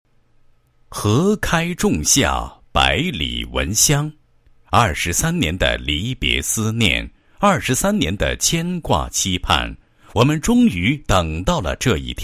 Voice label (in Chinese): 男 学校 散文; 差干中学